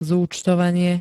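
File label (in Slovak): "zúčtovanie", Slovak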